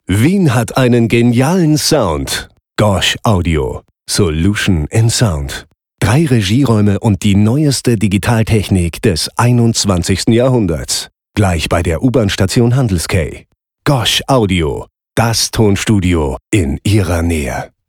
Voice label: plakativ

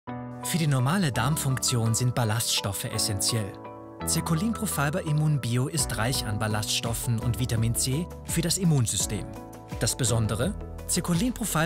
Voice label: Werbung; Zirkulin

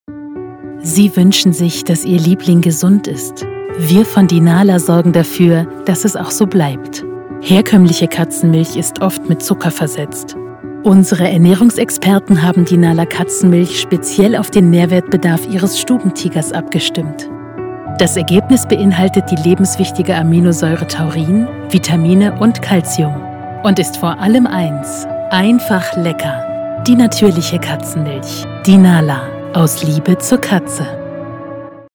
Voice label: Werbung; Dinala; Klangperlen

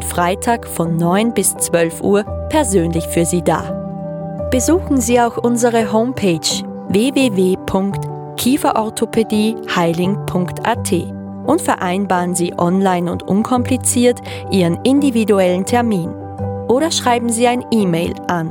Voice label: Anrufbeantworter Ordination Musik

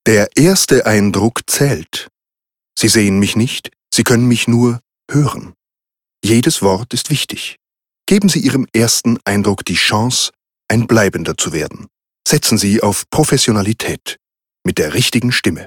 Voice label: Werbung

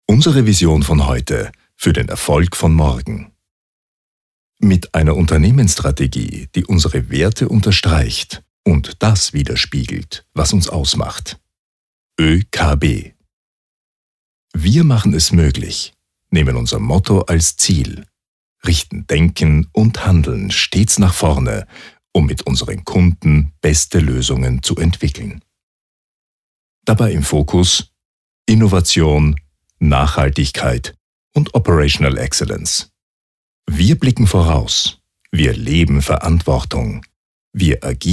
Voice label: werbung; OEKB

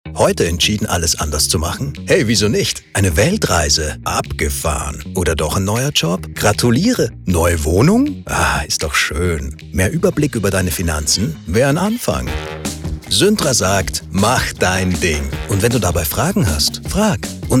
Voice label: Werbung spritzig laessig Bank

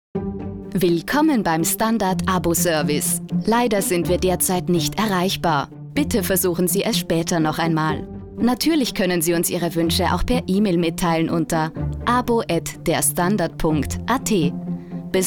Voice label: Telefon

